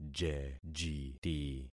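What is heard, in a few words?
"jejiti", concatenated syllables; Affixing experiment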